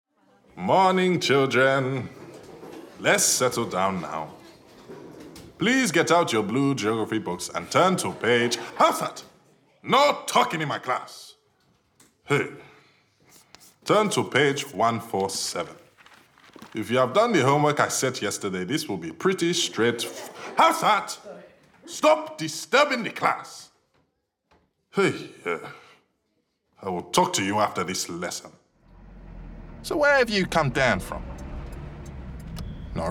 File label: Character